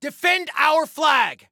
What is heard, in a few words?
Defend Flag, Soldier Male; Soldier Male 01